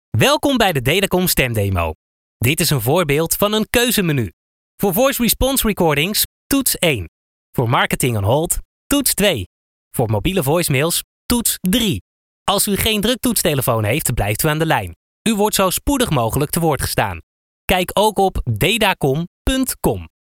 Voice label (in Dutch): Voice over Nederlandse man